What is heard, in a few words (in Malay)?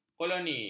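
"koloni", Malay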